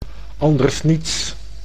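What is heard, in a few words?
"anders niets", Dutch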